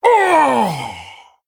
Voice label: Male Tauren Death